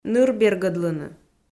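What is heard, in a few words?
"ныр бергӧдлыны", Komi, female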